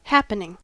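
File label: "happening", US English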